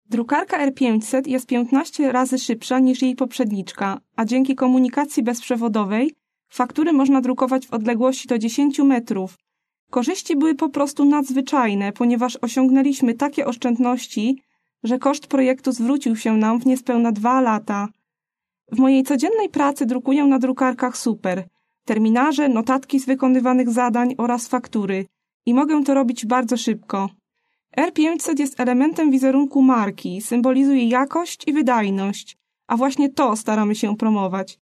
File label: Polish F Corporate